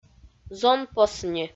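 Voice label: "зонпосни", Komi, female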